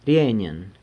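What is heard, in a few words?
"rienyen", San Lucas Quiavini Zapotec; we do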